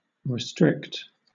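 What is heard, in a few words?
"restrict", British English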